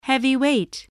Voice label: "heavyweight", English, spelling